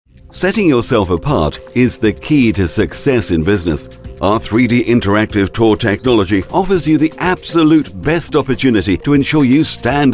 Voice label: english british voice over male narrator